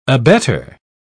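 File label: "abetter", British English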